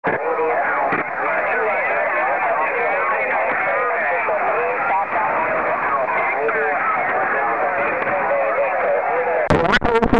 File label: 75m pileup